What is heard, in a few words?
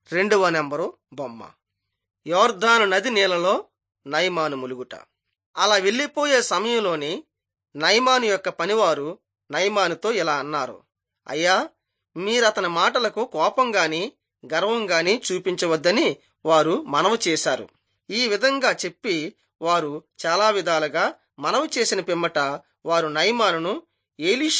telugu bangladesh; on trial for god